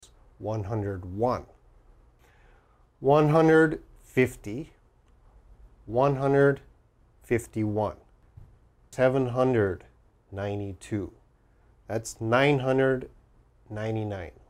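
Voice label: Set of numbers American pronunciation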